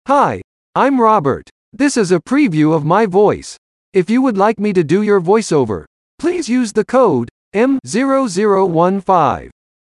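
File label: youth male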